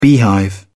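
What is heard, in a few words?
"beehive", English, British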